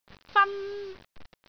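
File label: "fan1", Cantonese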